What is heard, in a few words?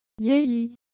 Ewe Color Visual, Ideo Phones